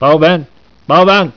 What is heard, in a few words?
viet infantry